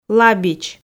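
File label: "лабич", Komi, female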